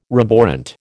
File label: "roborant", American